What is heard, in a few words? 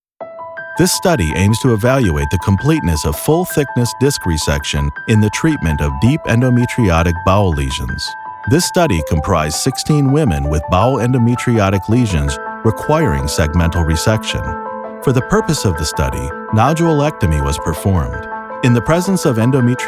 MEDICAL NARRATIVE; HOPE